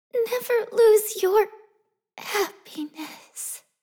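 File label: English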